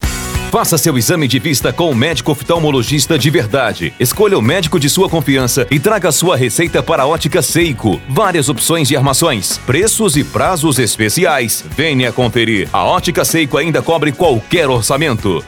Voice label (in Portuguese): vinheta otica e relojoaria propaganda, VOZ IMPACTO GRAVE, VINHETA LOCUTOR; VINHETA ABERTURA; otica seiko